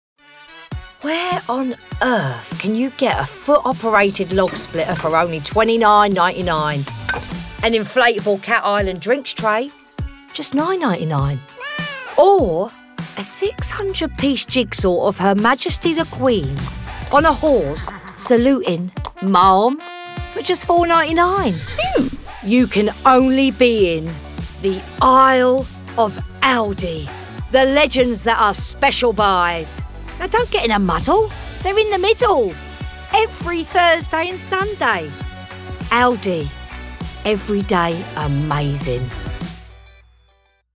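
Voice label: Genuine, Engaging, English; Aldi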